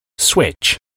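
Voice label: "switch", English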